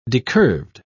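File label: "decurved", British English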